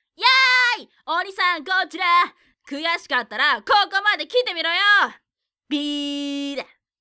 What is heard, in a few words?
boy